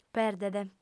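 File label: "perdet", female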